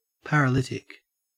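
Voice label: "paralytic", Australian English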